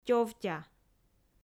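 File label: "тёвтя", Komi, female; audio dict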